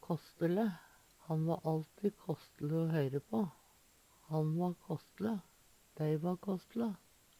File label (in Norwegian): kåstele, Numedalsmål